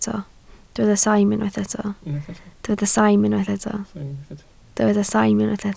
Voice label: "saim", Welsh